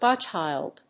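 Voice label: "godchild", English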